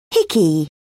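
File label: "hickey", English, British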